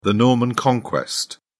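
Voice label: "norman conquest", British English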